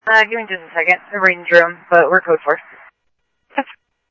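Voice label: police department, scanner audio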